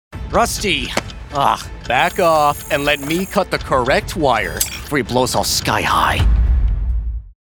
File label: Snarky Knight